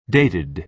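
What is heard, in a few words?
"dated", American English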